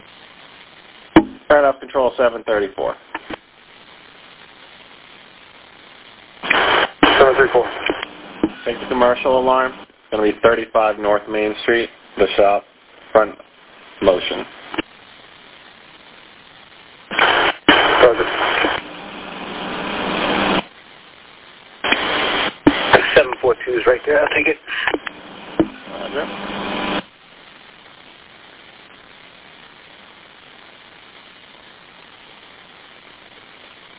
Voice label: Police Radio